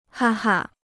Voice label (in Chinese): "哈哈", Chinese